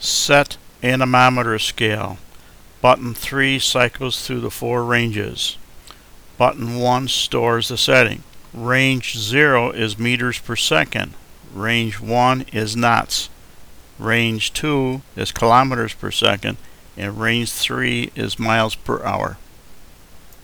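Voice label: anemometer scale, Male English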